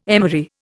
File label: "emery", Indian